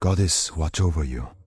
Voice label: Night Elf Male Standard Farewell